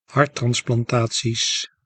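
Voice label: "harttransplantaties", Dutch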